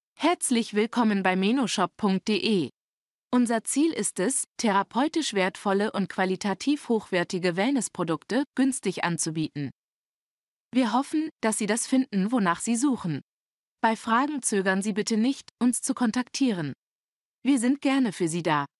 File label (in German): ANSAGE